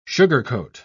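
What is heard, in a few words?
"sugarcoat", British English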